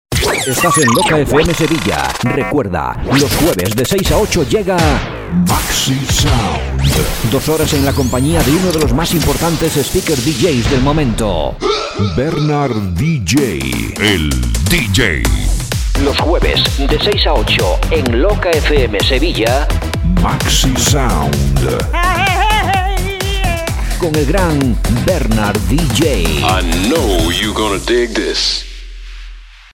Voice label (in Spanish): PROMO; MAXISOUND